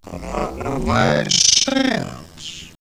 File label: Man Machine D Way It Sounds, robot vox; X-Static Goldmine